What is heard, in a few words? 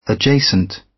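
"adjacent" British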